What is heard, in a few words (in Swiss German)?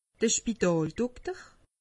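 "spitaldokter", Bas-Rhin; medecine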